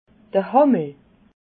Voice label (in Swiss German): "hammel", alsace